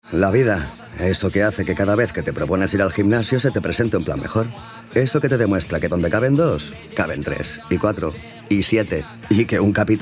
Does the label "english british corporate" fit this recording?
No